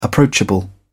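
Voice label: "approachable", British English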